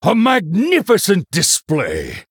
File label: Male Human Wow; HERO